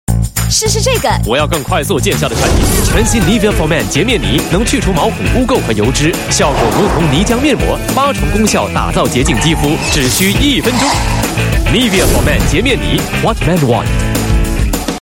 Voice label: Mandarin; Nivea